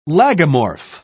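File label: "lagomorph", British English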